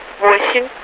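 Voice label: Russian speech, Mi-8MT RI65; DCS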